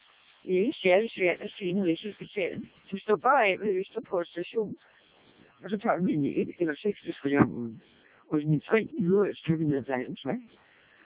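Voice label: Danish speech, CODEC2 vocoder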